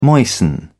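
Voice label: "moisten", British English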